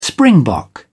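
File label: "springbok", English, British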